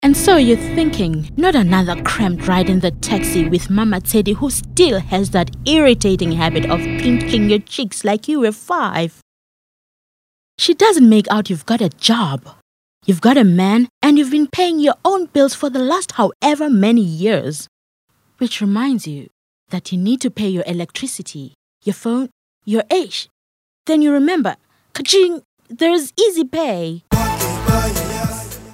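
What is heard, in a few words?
english South African female commercial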